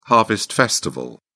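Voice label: "harvest festival", British English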